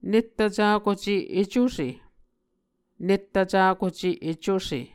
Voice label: "nettvcakuce ecuse", Muscogee